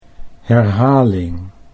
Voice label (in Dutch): "herhaling", Dutch